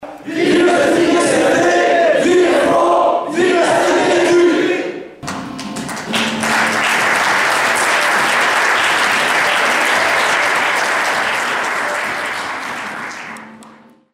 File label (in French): Slogan Campagne; SNI